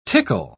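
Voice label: "tickle", English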